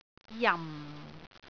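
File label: "jam4", Cantonese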